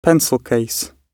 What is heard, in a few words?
"pencil case", English